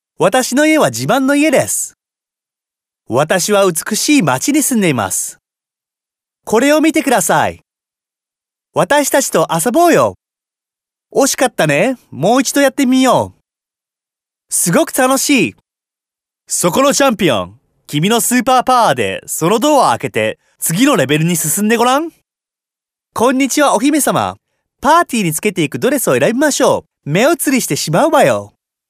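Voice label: Japanese male children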